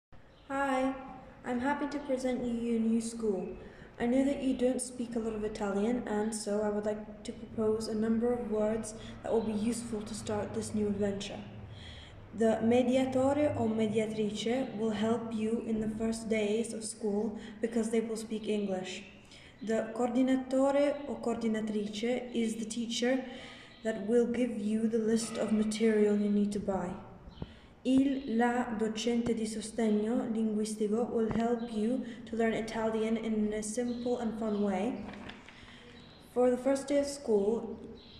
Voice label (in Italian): inglese